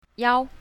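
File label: "yao1", Chinese pinyin, south, female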